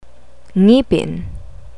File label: "ngipin", Tagalog